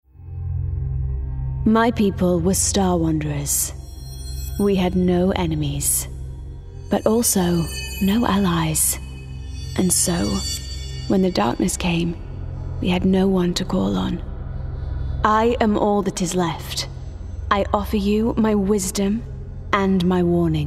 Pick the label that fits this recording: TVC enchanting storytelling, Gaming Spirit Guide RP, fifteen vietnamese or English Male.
Gaming Spirit Guide RP